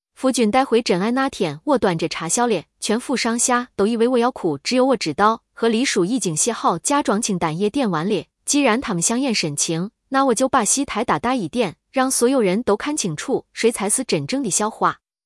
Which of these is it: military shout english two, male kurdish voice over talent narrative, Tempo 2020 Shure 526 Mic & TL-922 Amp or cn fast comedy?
cn fast comedy